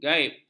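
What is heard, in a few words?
"ghaib", Malay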